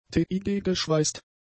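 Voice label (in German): "TIG-geschweißt", German; adjectives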